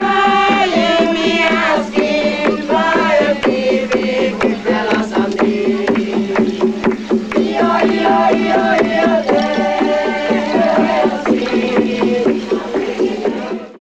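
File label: voice, Foreigns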